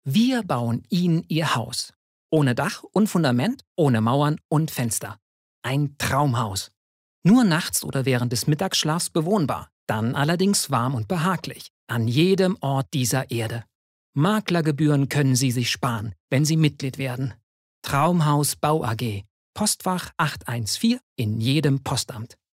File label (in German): werbung freundlich